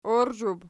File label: "oirzhub", Archi